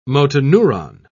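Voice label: "motoneuron", British English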